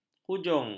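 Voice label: "hujung", Malay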